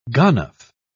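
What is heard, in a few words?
"ganof", English, British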